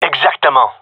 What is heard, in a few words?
agree, gendarmerie male; vo